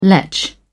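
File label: "lech", British English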